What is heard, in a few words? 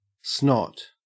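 "snot", Australian English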